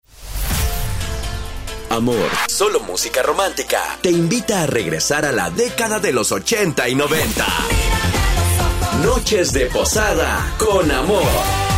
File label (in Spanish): PROMO; NOCHES DE POSADA